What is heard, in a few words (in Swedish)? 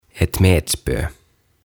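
"ett metspö", Swedish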